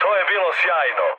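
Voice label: Serbian trick mood med; speech; War Thunder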